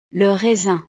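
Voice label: "le raisin", French; frutas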